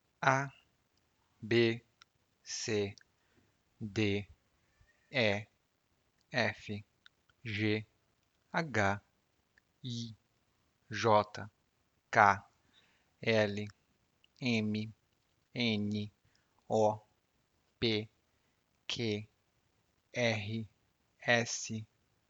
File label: portuguese alphabet reading